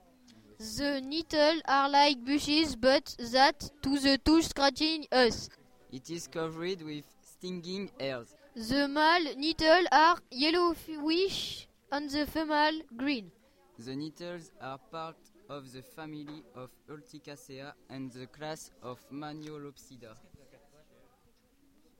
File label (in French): vocal anglais